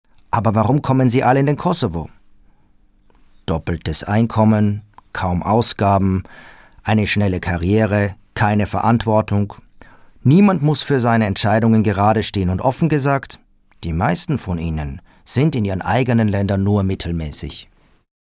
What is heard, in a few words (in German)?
Synchronstimme; Kosovo